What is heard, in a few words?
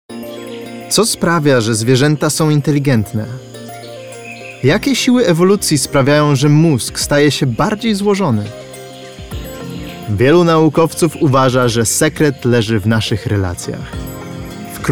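Polish Narrative; GoLocalise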